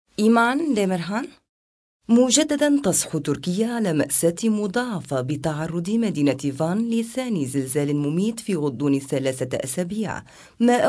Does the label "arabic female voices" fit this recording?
Yes